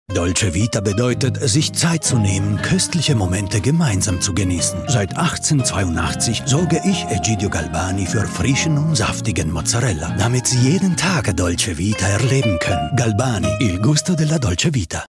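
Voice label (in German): Werbung Deutsch, Commercial (Werbung), German, Akzent: Italian; Galbani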